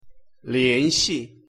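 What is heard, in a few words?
pronunciation "联系", Chinese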